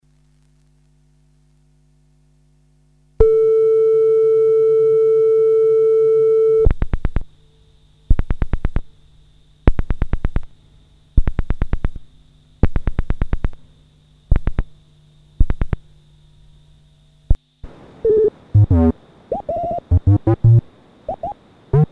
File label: phone conversation parody